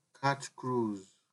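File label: "catch cruise", Nigerian Pidgin, male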